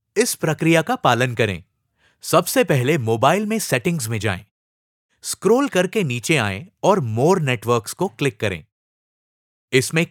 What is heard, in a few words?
hindi e-learning